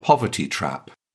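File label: "poverty trap", British English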